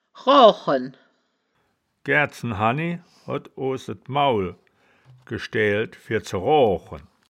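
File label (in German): "roachen", wittlicher woerterbuch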